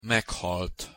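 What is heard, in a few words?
"meghalt", Hungarian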